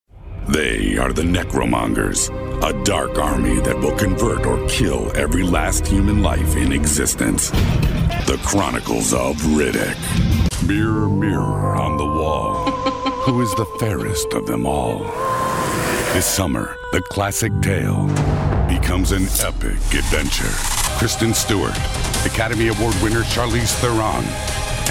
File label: Trailer Demo